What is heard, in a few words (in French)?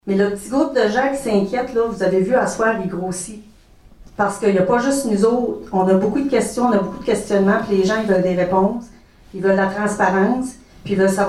reportage manif circonscription saint quentin